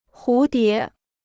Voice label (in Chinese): "蝴蝶", Chinese